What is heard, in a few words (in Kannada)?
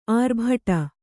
"ಆರ್ಭಟ", Kannada; ārbhaṭa; noun